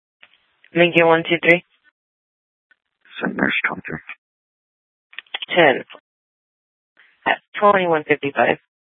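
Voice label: Sheriff, Parks, Sheriff radio scanner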